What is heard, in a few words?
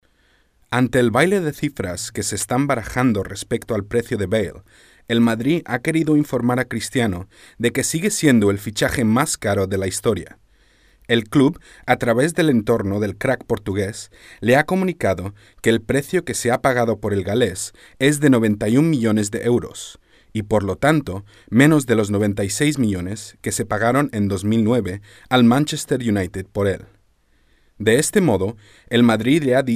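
Spanish EU, male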